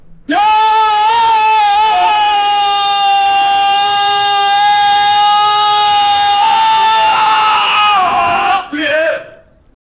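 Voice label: a person shouting, angry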